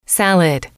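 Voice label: "salad", English, female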